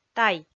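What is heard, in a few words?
"тай", Komi, female; audio dict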